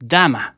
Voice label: annoyed